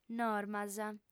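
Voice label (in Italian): "normas", lingua sarda, fem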